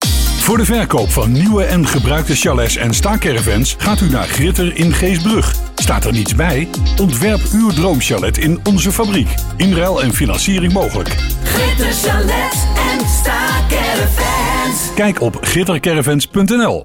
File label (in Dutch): Chalets en Stacaravans, adverteren op de radio; Gritter